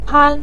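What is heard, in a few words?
"pan5", Chinese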